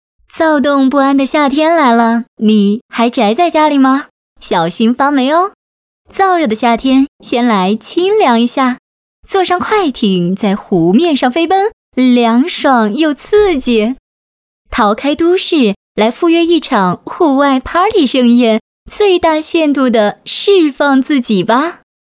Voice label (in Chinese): 女 广告 甜美青春; 躁动不安的夏天